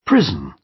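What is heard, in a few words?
"prison", British English; Crime and punishment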